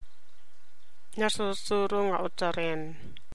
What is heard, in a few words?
Ilocano